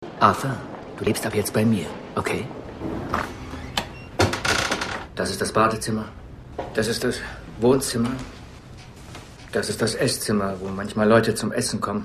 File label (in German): Synchron, Trailer, maenner; Beginners; Ewan McGregor